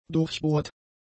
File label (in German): "durchbohrt", German; adjectives